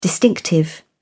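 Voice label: "distinctive", British English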